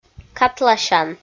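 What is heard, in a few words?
"катласян", Komi, female; kpv audio dict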